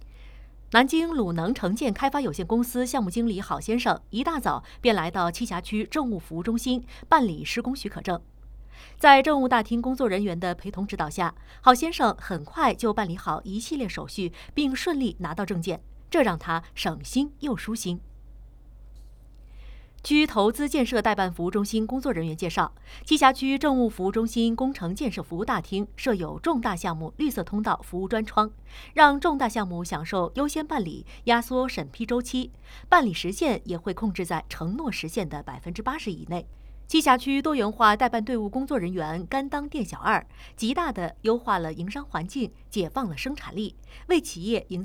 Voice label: 民生新闻配音

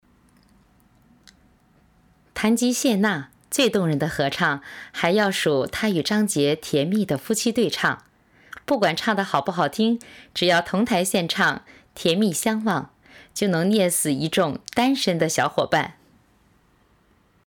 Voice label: 演绎类

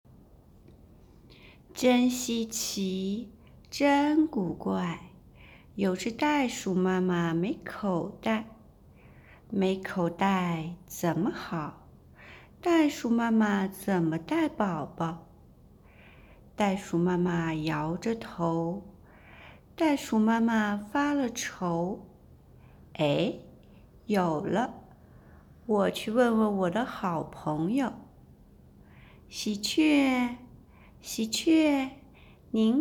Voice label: 儿童有声读物配音

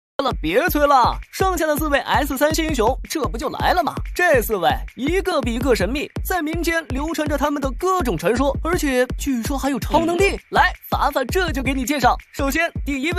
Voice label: 信息流激情介绍; 重返帝国天岁

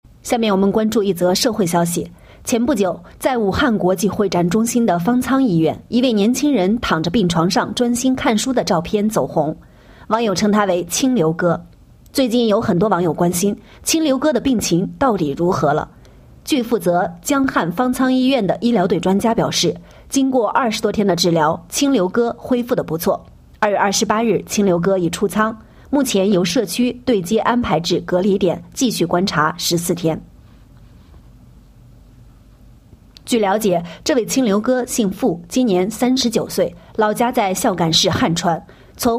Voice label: 新闻报道

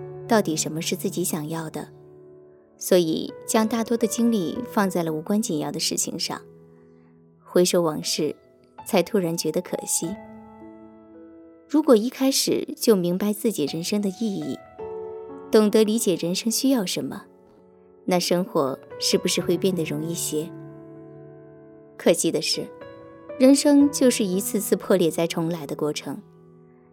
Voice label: 情感电台 清雅

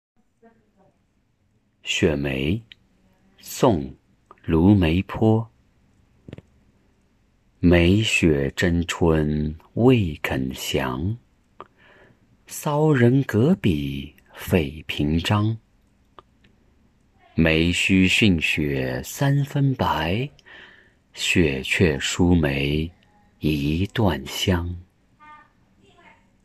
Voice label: 中文

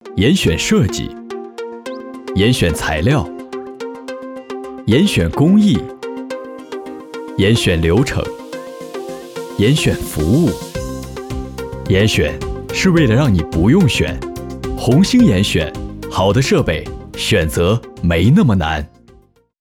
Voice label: 专题片样音

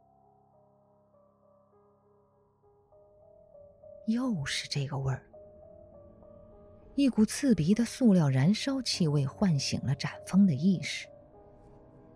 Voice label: 悬疑小说片段

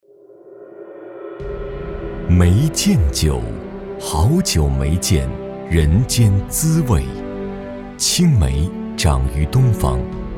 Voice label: 酒 酱香 厚重 广告

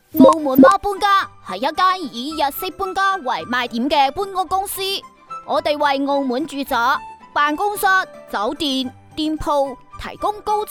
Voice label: 粤 仿童 广告

